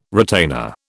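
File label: "retainer", British English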